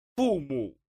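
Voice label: "fu mu", Chinese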